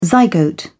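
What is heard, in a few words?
"zygote", British English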